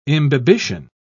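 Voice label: "imbibition", British English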